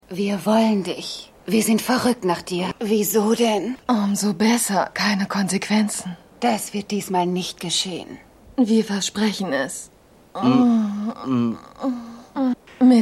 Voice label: Frau; AusgerechnetAlaska

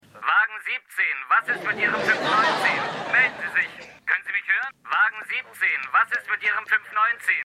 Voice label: Maennl Zentrale, Stimmproben; Seinfeld

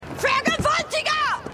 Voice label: Frau; The Morning Show

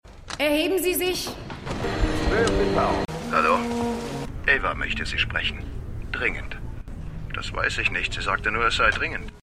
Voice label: Gerichtsdienerin Durchsage Telefonstimme; Goliath

